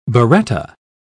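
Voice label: "biretta", British English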